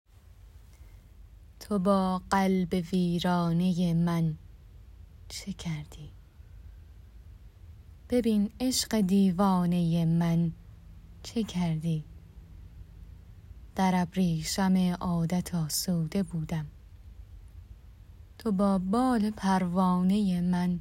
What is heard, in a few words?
narrator